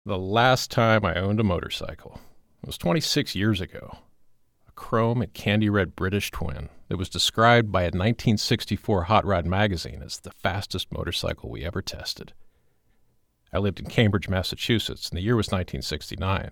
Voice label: Non-Fiction, First Person Memoir

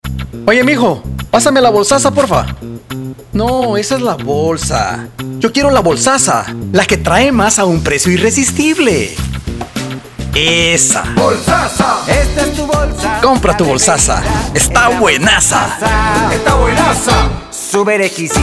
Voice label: Commercial Spanish Guatemala; Lay's

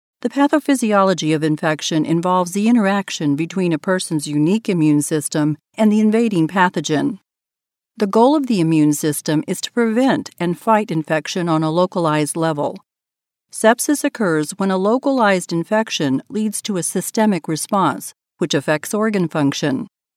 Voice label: Medical explainer

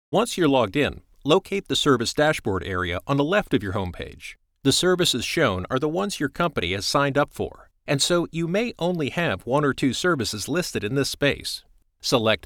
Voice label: eLearning Computer UI Training

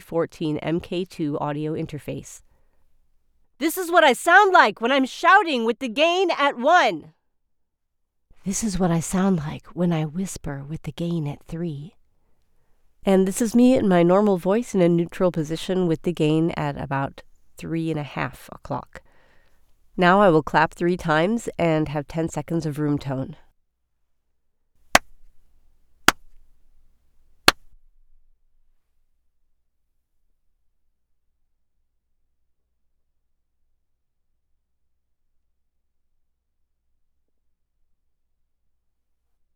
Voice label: STUDIO SAMPLE

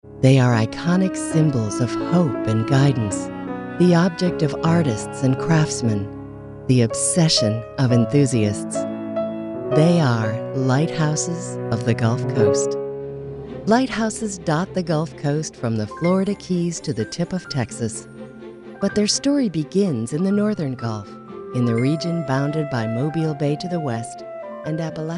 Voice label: Documentary

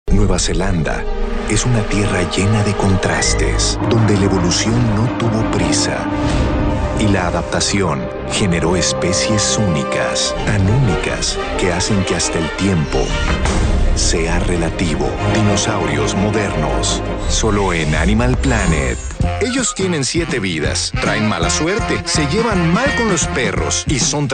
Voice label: PROMO

